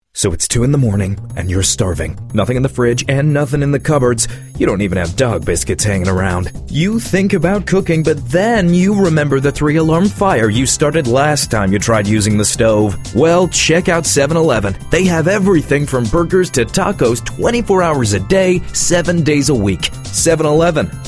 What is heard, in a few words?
english british voice over male